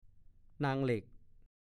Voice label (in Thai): "นางลั่ก", ภาษาโคราช; แมงลัก (ผักชนิดหนึ่ง เมล็ดแช่น้ำกินได้)